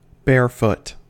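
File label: "barefoot", US English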